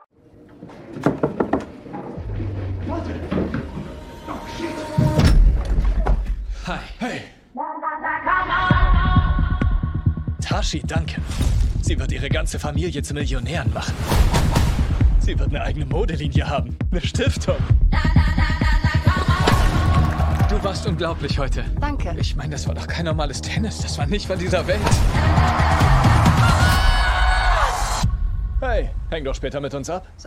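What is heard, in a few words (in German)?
Synchron, Sprecher, Männer; Challengers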